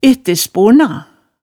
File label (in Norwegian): "ettesporna", hemsedalsmaalet